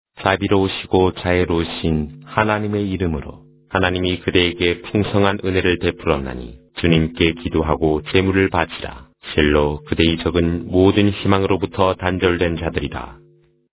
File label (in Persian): ترجمه گویای قرآن کریم به زبان کره ای; سوره کوثر